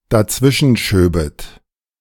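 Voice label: "dazwischenschöbet", German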